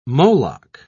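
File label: "moloch", British English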